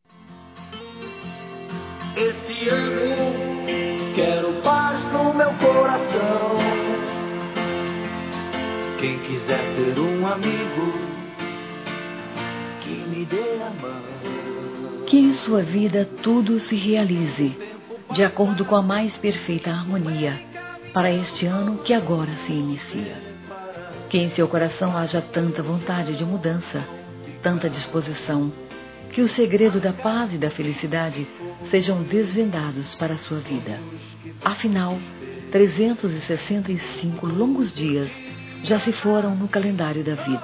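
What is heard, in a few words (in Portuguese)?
ANO NOVO NEUTRO, Feminino; Telemensagens; Natal e Ano novo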